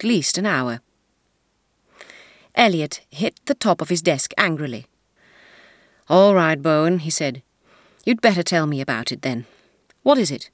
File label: phone call